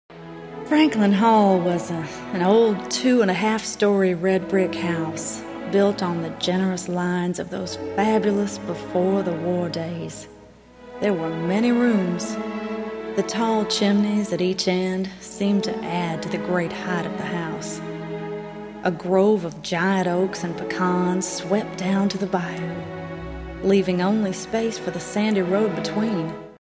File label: Commercial